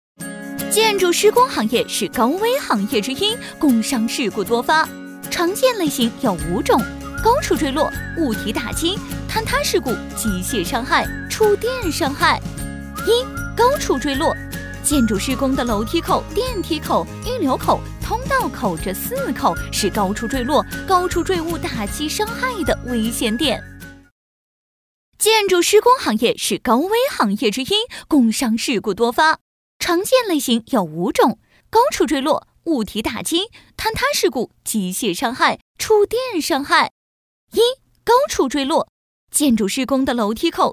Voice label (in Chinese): 建筑工地宣传 轻松; 建筑工地宣传文体mg